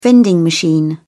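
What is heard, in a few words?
"vending machine", British English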